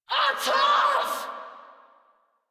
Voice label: Attack Grineer Female; Warframe